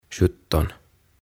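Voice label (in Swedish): "sjutton", Swedish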